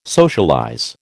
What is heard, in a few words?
"socialize", American